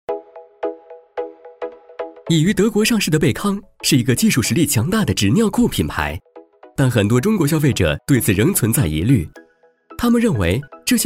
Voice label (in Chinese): 男 专题 年轻; 倍康纸尿裤